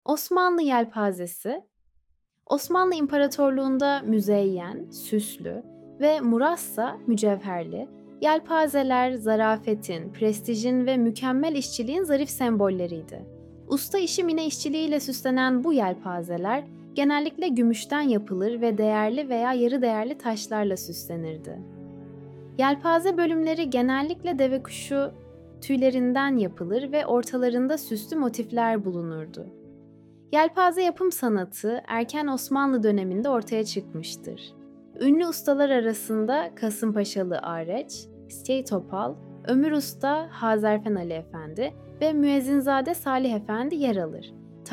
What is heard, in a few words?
ENG Music; Osmanli Yelpazesi; art collection